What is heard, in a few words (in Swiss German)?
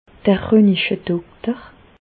"ronichedokter", Bas Rhin; medecine; radiologue